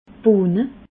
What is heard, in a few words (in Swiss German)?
"bohne", Alsace